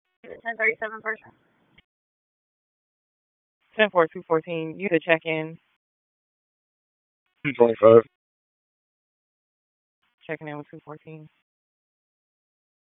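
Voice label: Police Northeast District Dispatch; Raleigh; wake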